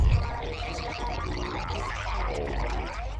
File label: alien gibberish